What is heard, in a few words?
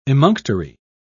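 "emunctory", British English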